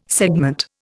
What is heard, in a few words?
"segment", Indian